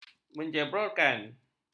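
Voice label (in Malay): "menjebrolkan", Malay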